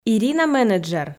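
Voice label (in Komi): "менеджер", Komi, female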